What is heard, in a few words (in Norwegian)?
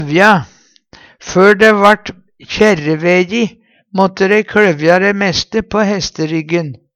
kLovje, Numedalsmål; Før dæ vart kjerreveji måtte dei kLøvja dæ meste på hesteryggen.